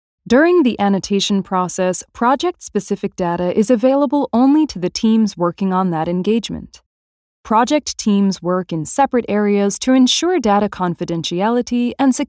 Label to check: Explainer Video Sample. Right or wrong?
Wrong